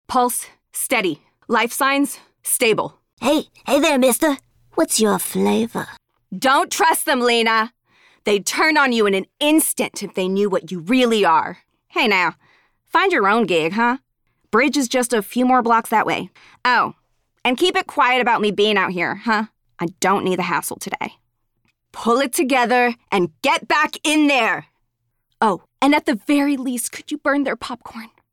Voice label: women animation